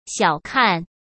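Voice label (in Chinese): "小看", Mandarin Chinese; chinese flashcards; hsk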